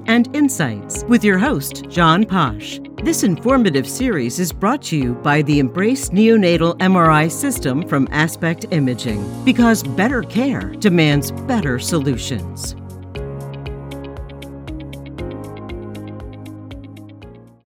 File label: Podcast